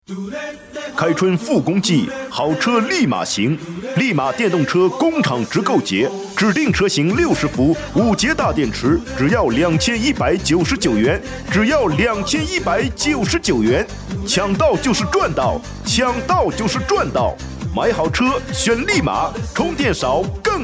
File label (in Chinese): 促销广告